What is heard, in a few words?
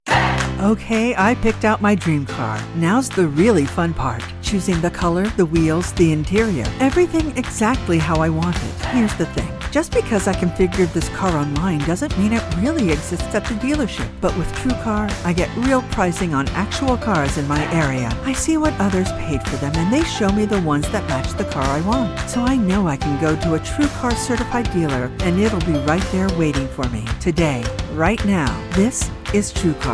Commercial; TrueCar